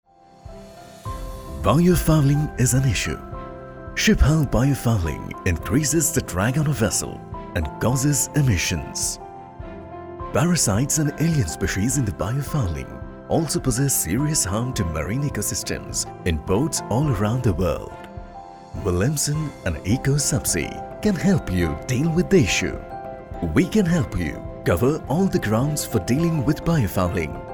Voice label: English Explainer